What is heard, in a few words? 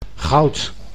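"goud", Dutch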